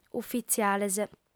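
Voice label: "ufitziales", female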